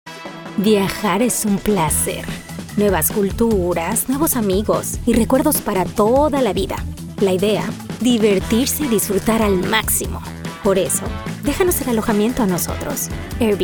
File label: Neutral Spanish Commercial